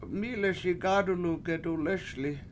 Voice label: "mI7leSi gAAdulu gedulESli", washo

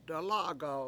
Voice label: "dalA7agaw" up a mountain, Washo

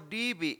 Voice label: "dIIbi7" bone, Washo

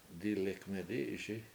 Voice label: "dIlek medI7iSi" duck floats, Washo

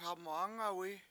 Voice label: "happy", Washo; hAmuwAngawi7

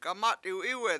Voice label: quit pouting, Washo; gamAt1w Iwe7

